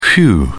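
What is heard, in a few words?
"phew", British English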